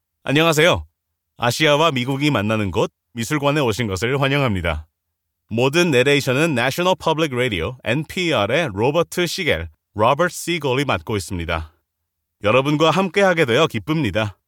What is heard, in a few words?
korean documentaries